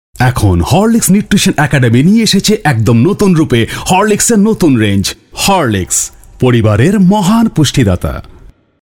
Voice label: Bengali Male